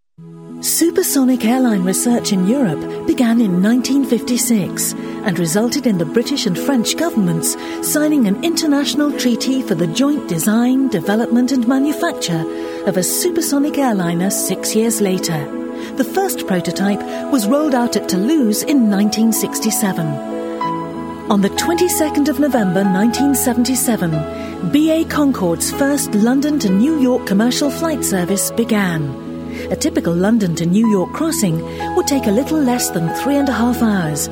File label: documentary